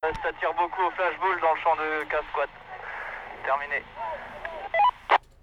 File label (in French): talkie ca tire beaucoup flashball